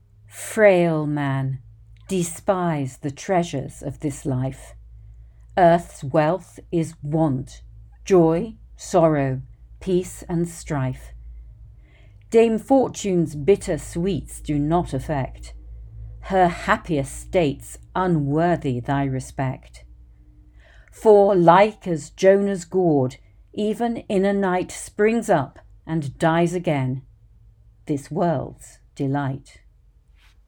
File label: English guide; Fraile Man